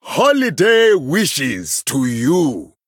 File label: Male Troll, HAPPY HOLIDAYS; en